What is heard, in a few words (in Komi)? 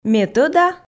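ме тӧда, Komi, female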